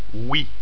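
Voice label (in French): "oui", French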